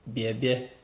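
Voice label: "bearbear", Nigerian Pidgin, male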